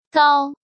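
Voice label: "Gāo", Chinese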